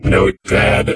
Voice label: "notepad", computer voice